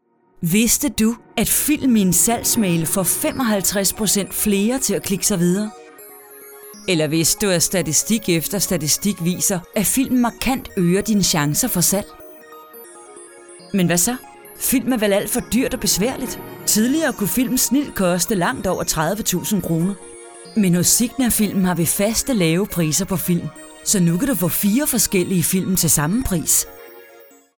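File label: Danish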